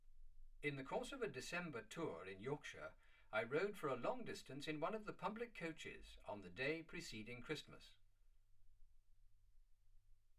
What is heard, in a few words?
male speech english